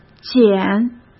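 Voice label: "剪", Mandarin; chinese-flashcards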